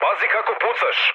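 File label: Serbian player friendly fire mood med; speech; War Thunder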